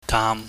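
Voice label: "daam", Cantonese, low tone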